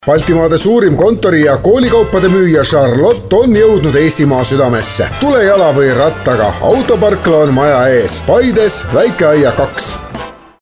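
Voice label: Estonian, male, commercial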